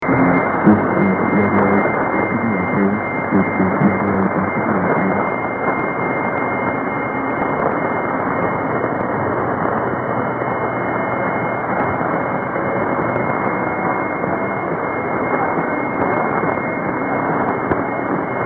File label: radio; 1320; radio buckineer london 227m